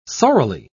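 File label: "sorrily", British English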